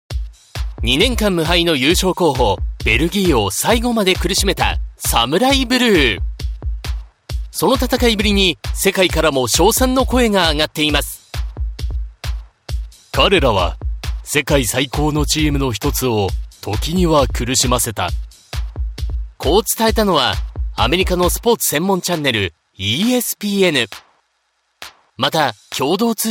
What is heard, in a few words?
japanese others